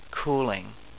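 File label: "cooling", English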